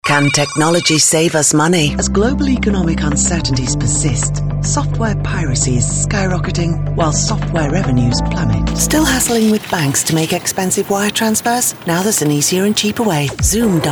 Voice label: Narration Commercial